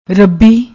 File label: "rubbi", Punjabi